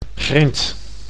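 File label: "grind", Dutch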